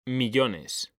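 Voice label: "millones", Spanish; mekanta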